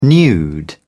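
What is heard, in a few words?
"nude", British English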